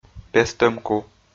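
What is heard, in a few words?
"пестӧм ку", Komi, male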